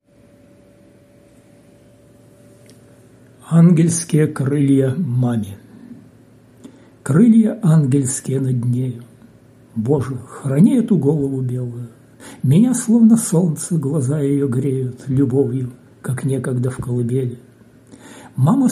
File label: russian